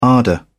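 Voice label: "ardour", English, British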